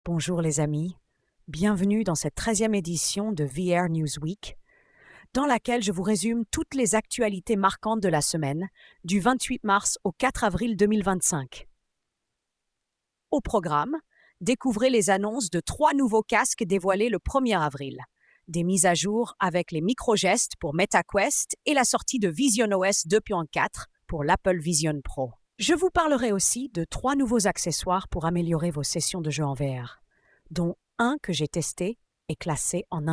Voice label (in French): Voix Off; Clairy AI; StylistMe